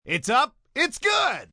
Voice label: Its Up Its Good, announcer, male, (human voice speaking); Sports, umpire, coach; Talking